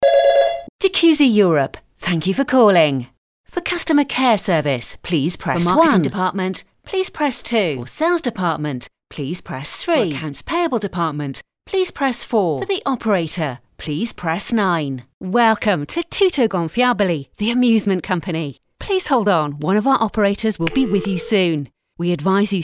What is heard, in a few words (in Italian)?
inglese madrelingua UK